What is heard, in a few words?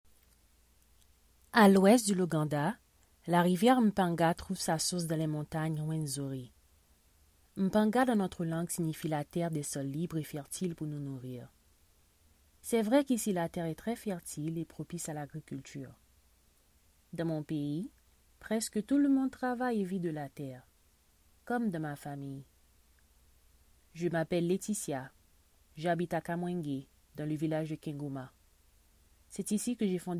haitian creole corporate videos